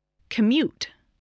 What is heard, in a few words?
"commute", english